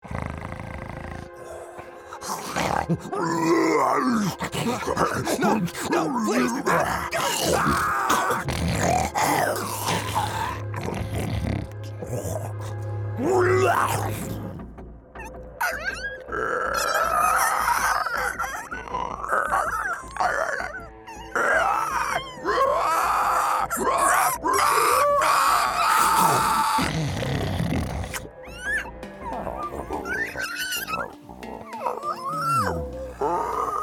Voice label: Creature